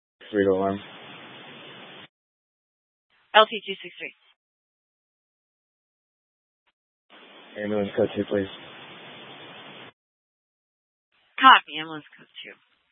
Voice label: Fire Departments, scanner